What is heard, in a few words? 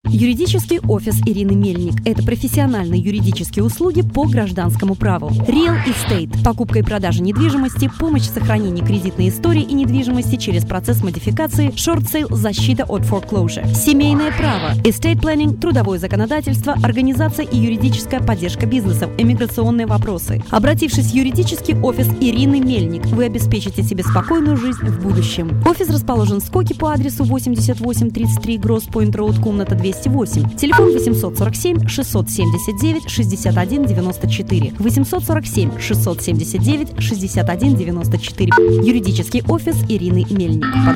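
Russian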